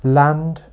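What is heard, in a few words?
"land", English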